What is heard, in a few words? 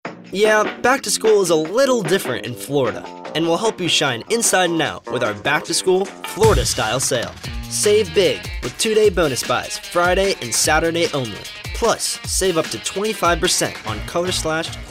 kid; Back To School